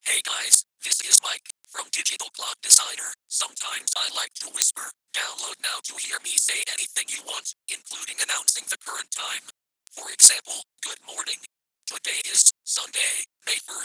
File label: mike whisper